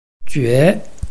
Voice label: "jue2", Chinese